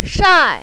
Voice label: "shai4", mandarin, female